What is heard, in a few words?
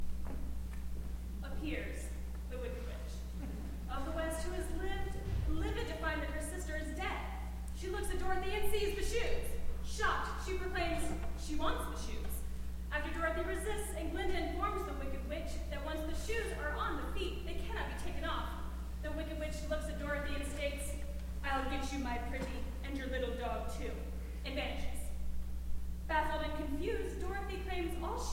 Narration